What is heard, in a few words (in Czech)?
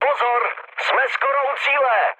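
Czech near waypoint, mood medium; War Thunder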